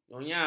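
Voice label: "nyonya", Malay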